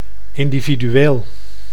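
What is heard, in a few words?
"individueel", Dutch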